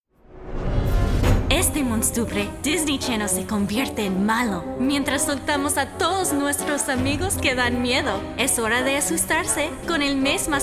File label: Spanish Promo